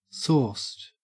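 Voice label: "sauced", Australian English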